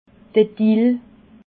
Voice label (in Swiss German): "dill", alsace